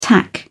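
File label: "tack", British English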